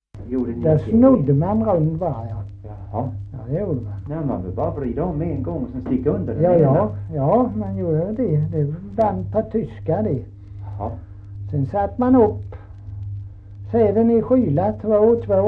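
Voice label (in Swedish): Kristianopel Blekinge, dialekter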